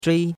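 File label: "zhui1", Chinese syllable, first tone; Cours de Chinois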